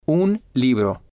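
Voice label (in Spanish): un libro, Spanish, male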